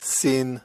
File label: sin, hebrew